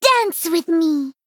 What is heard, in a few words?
Female Gnome Play